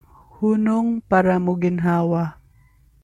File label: Cebuano